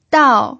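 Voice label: "dao" fourth tone, Mandarin Chinese pinyin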